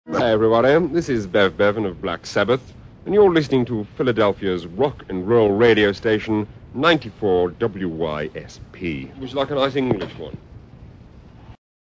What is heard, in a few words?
Radio Tag; WYSP Philadelphia